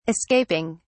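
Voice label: "escaping", British English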